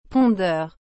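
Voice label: "pondeur", French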